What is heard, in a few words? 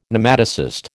"Nematocyst", American